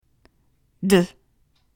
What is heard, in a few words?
alpha sounds d